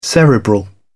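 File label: "cerebral", British English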